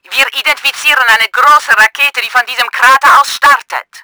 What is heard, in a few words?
ROCKET LAUNCHED, german; Battle Engine Aquila